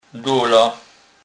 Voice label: "dola", kurpiow

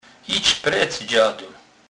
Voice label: "dzad", kurpiow

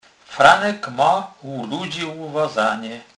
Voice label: "luwazane", kurpiow

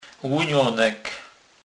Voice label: "lunonek", kurpiow

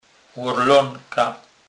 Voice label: "lorlonka", kurpiow

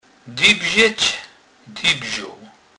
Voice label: "dybzec", kurpiow

